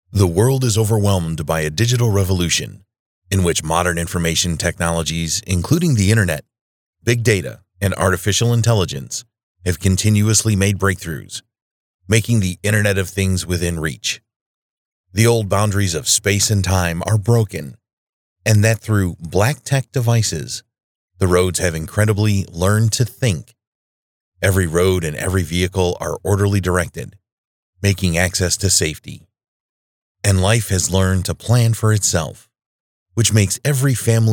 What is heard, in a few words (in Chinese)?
外籍英男; 漫联配音英文翻译江苏协和电子股份有限公司